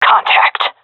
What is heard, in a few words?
sees enemy, vo fem; agents; Source Model Packs; Counter Strike Model Pack; CS2